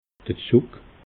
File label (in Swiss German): "zugg", alsace